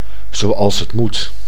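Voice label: "zoals het moet", Dutch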